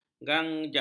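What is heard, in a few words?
"ganjak", Malay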